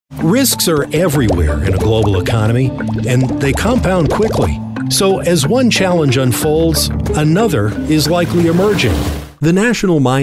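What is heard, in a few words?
narration, male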